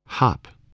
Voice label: "hop", voice spelling

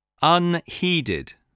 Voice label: "unheeded", English spelling voice

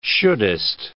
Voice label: "shouldest", words

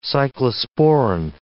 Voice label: "cyclosporine", english

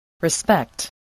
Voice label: "respect", English

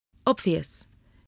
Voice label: "obvious", voice, spelling

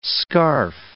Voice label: "scarf", English

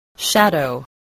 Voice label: "shadow", spelling

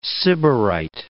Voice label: "Sybarite", English

